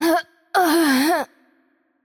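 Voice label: death, Russian; bloom minigame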